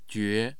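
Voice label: "jue", Mandarin Chinese, tone 2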